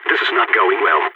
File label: scared emote, balkan; Counter-Strike Global Offensive